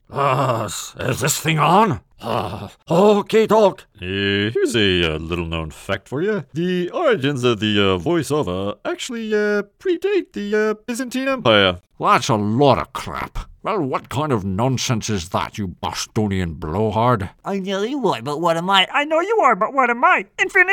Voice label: Mimic voices